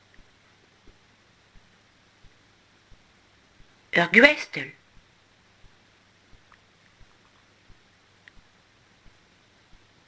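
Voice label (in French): gateaux les ar gwestell, apprenons le breton ensemble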